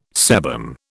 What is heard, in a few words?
"sebum", British